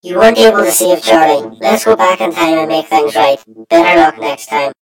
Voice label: story voiceover; yumpod